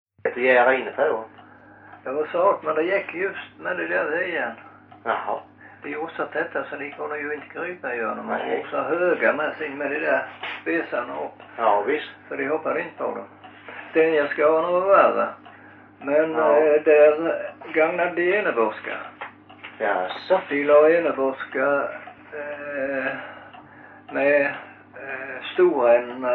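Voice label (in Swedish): Loshult Skåne, svenska sagor dialekter; Folkminnen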